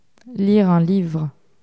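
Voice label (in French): "lire un livre", French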